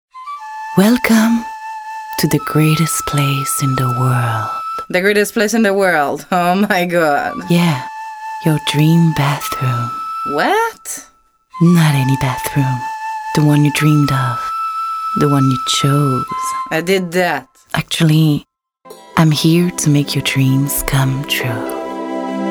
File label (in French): anglais personnage serieux personnage humour anglais canada accent qc adult